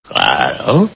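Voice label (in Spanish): "claro", Spanish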